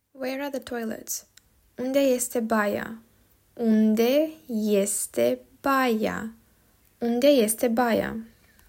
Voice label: Romanian Where are the toilets